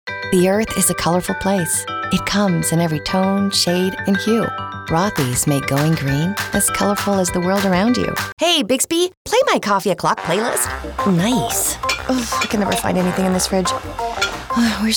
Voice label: English Commercial